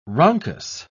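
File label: "rhonchus", British English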